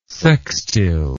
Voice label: "sextile", English

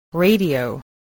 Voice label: "radio", english

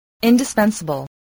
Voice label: "indispensable", voice

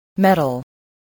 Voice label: "metal", English

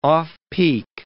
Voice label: "off-peak", English

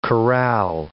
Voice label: "chorale", English

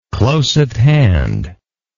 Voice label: "close at hand", English

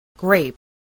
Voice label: "grape", spelling

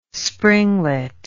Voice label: "springlet", english

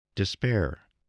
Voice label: "despair", English

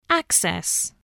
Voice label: "access", English voice

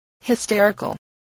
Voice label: "hysterical", voice spelling

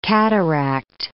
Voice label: "cataract", English

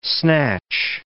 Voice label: "snatch", english

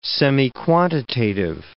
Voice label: "semiquantitative", English

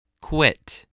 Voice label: "quit", english

